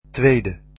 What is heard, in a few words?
"second", Dutch